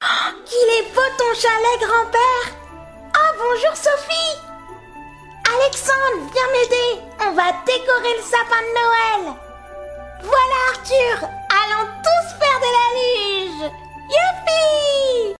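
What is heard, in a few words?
French African, Child voice; GoLocalise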